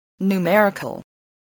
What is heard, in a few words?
"numerical", voice, spelling